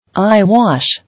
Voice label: "Eye wash", English